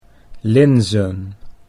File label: "linzen", Dutch